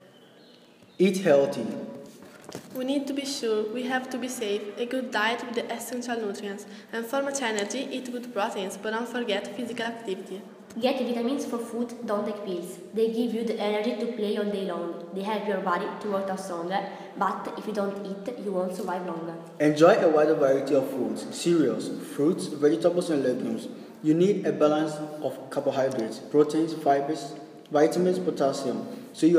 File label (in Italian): Inglese